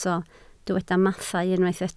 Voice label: "mathau", Welsh